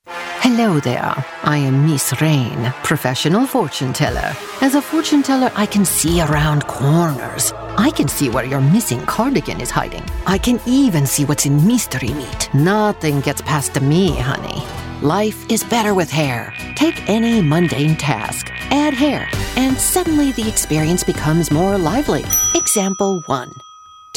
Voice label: character